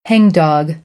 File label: "hangdog", American; ɑː - ɒ